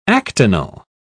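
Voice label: "actinal", British English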